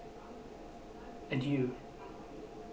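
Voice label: "adieu", Canadian, male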